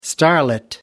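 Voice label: "starlit", British English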